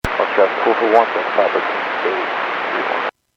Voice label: Airports 122.7, Radio; KSTE